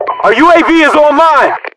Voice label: our uav online, US voiceovers

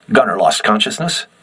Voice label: voice message gunner contused, crew ships english

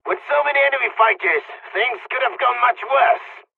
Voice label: dialogs polish; War Thunder

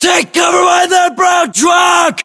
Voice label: order cover truck brown, battlechatter us

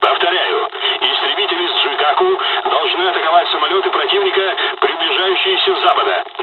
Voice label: dialogs russian; War Thunder

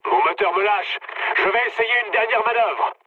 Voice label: damaged engine mood low, speech fr; War Thunder

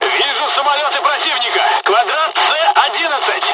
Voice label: dialogs russian; War Thunder

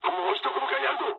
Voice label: bomb failure mood low, speech jp; War Thunder

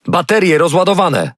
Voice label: Speech Alarms Sub Battery End, PL; World Of Warships

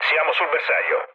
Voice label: near waypoint mood low, speech it; War Thunder

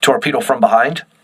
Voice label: voice message torpedo rear, crew ships english

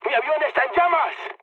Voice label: on fire mood low; speech; War Thunder

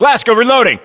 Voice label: US inform reloading, battlechatter, english

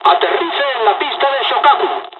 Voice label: landing, dialogs spanish; War Thunder

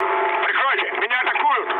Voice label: aircraft damaged, mood low, speech ru; War Thunder

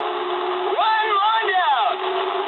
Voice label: eng player killed enemy mood med, speech eng

